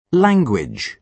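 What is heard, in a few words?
"language", British English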